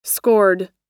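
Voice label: "scored", US English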